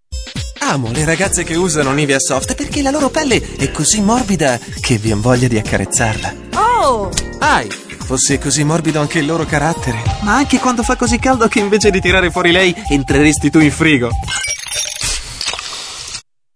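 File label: Italian male voice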